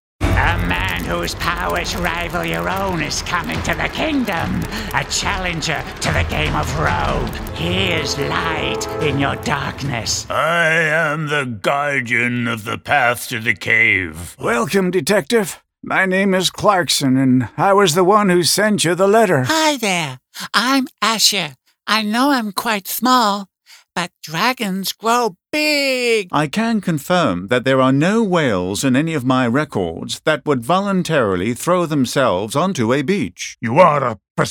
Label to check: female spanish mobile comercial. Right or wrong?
Wrong